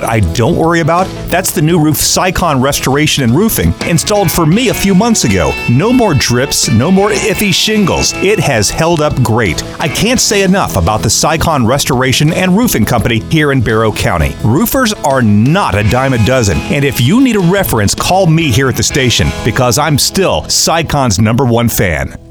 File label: radio Ad; SYCON